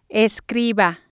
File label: "escriba", spanish